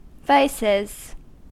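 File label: "vices", US English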